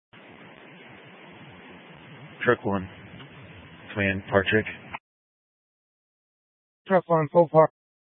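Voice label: Incident Tactical 1, Scanner audio recording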